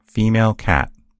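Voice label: "cat female", American English; gcompris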